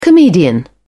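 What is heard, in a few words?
"comedian", British English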